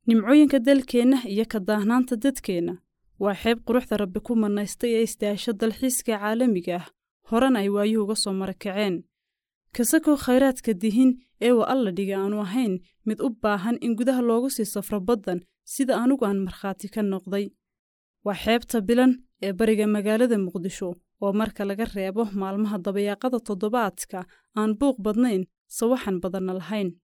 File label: female somali voice over narrative